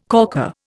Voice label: "corker", Indian